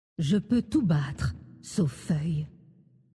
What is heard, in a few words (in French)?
Terrestres Femme Blague Tout Battre, VF